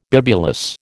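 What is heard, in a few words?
"bibulous", American English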